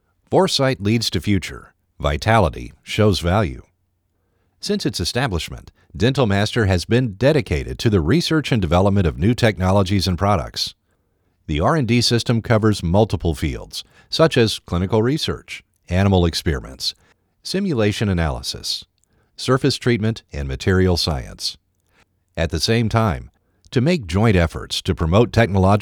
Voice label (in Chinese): 公司宣传片配音